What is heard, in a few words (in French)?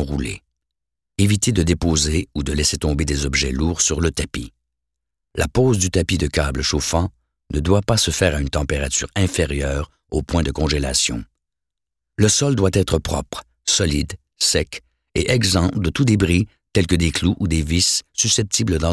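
Voix vidéo d installation; STELPRO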